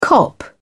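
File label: "cop out", British English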